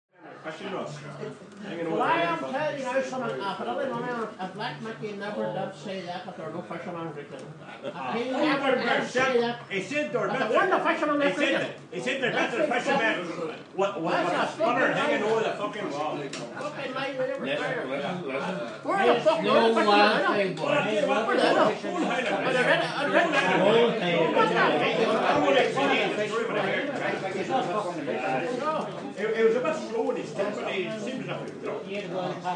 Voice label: irish pub banter

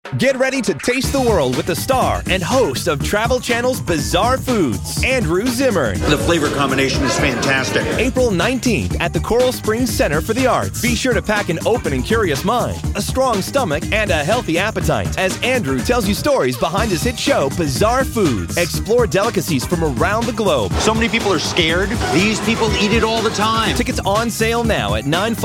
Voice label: voice over

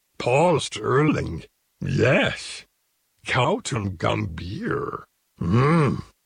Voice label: jingles; gautam gambhir; yoda

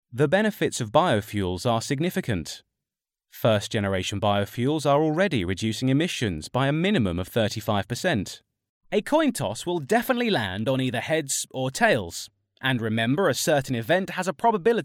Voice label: voiceover samples; from todays work

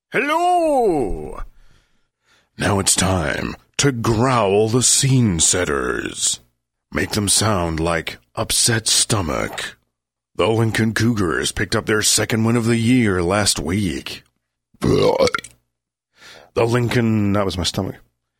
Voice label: voice guy opens a window

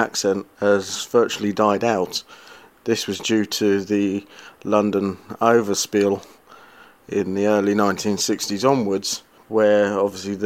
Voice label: accents explosions

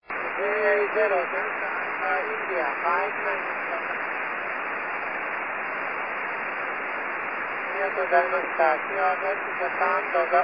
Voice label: 220MHz SSB

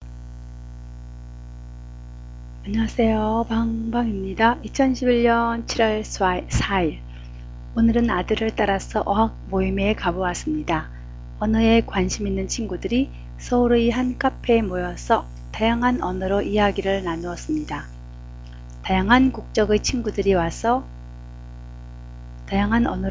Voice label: Korean language meeting

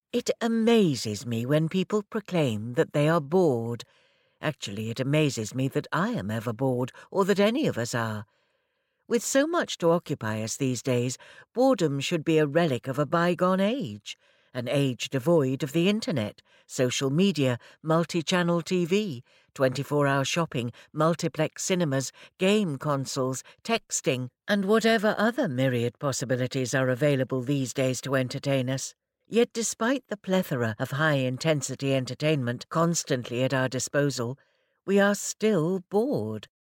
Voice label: narration; whyarewesobored

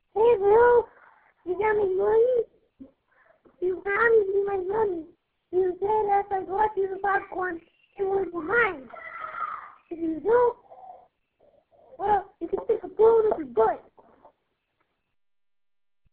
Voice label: Prank call

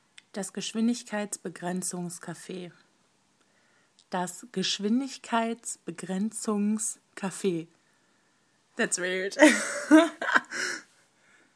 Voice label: some German words

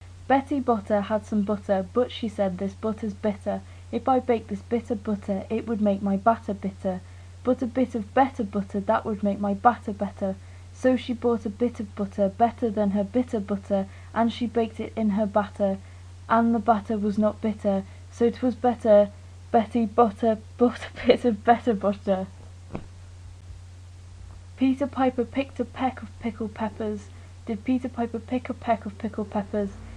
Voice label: tongue twisters

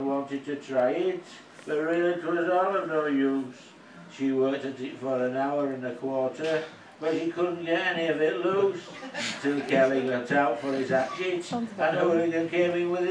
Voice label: comedy poetry folk; at the wrens leeds

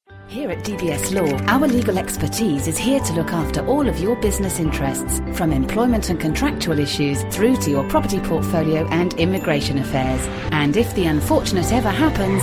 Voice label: commercial

